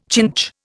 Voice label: "chinch", Indian